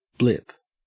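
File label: "blip", English, Australian accent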